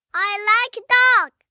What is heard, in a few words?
I Like Dog, 1 boy, 4 yrs